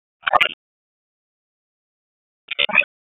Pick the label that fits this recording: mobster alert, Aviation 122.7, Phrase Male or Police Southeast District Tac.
Police Southeast District Tac